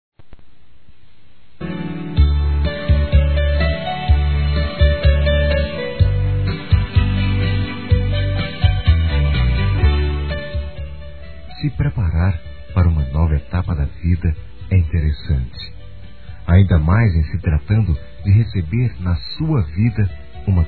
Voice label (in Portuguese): Parabéns Pelo Bebê (Papai), Telemensagens; Parabenização